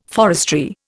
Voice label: "forestry", Indian

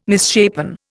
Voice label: "misshapen", Indian

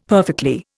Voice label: "perfectly", Indian; Dictionary

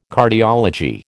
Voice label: "Cardiology", American

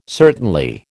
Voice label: "certainly", American

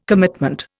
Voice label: "commitment", Indian

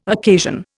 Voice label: "occasion", Indian

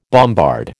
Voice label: "bombard", American

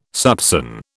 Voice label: "soupçon", British

